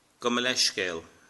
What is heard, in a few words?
sorry, Irish